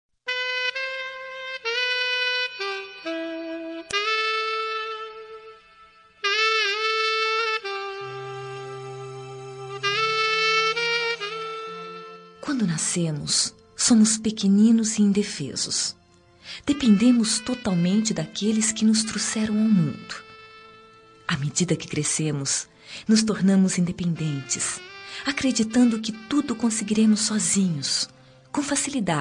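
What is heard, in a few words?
female; pai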